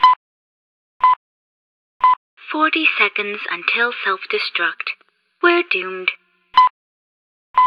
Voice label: train announcer; Hat in time; 40s